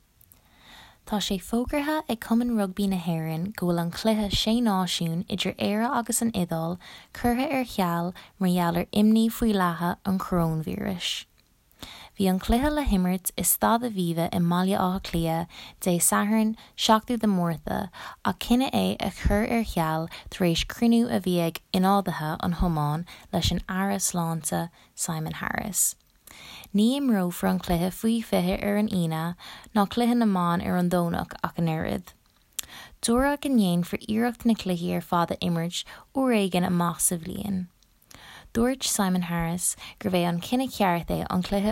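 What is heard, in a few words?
GA Narration, Irish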